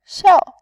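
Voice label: "xiao", Mandarin Chinese, tone 4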